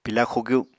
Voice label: "pila xugyu", Apatani